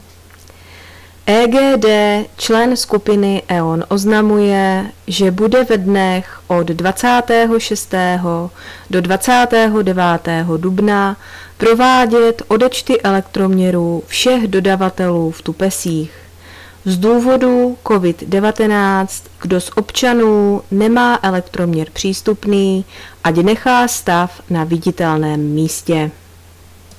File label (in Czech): odecty elektromeru, rozhlas